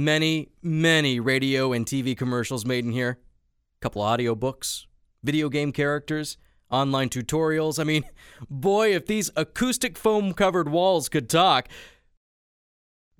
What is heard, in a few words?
Studio